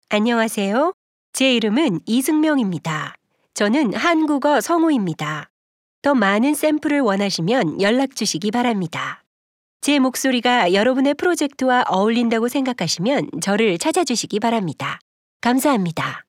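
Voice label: Korean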